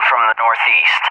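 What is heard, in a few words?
"from the northeast", English, USA; DCS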